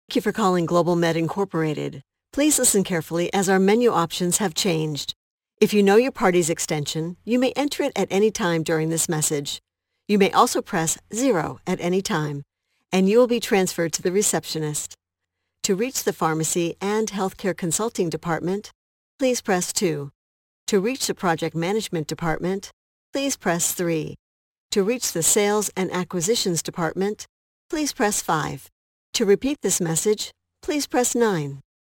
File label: voice female